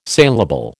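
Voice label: "saleable", American English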